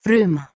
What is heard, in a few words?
"fruma", Proto-Germanic